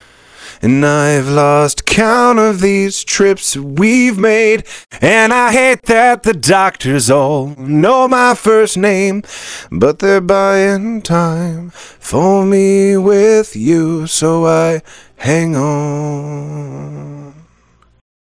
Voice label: voice compressor; 1176 la2a auf vocals